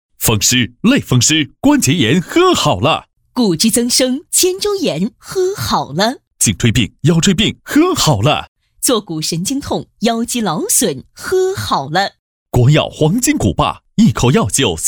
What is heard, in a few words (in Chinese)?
女 男女电购风格 大气力度; 国药黄金古霸